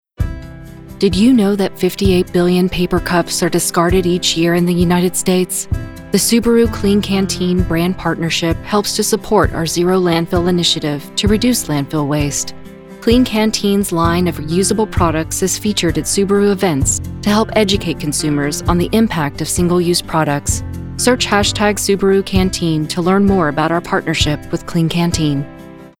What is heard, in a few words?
Explainer; SUBARU